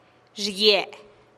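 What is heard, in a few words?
"xiguie", Teotitlán del Valle Zapotec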